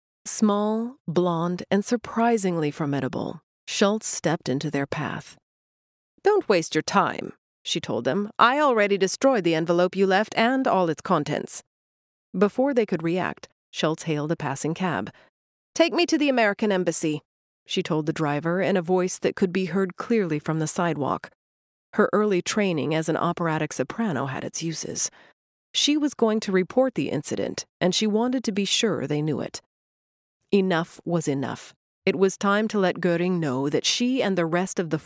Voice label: english canadian audiobooks